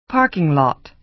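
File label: "parking lot", American English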